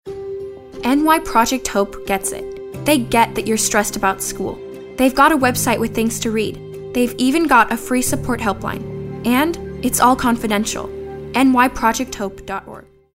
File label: concerned